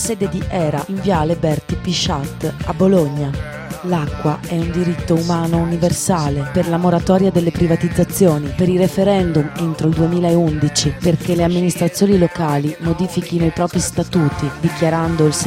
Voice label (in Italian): Spot Radiofonico Manifestazione; Comitato Acqua Bene Comune; Bologna; Manifestazione 4 Dicembre